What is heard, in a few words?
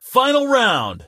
final round, Male; Kenney's Sound Pack; Voiceover Pack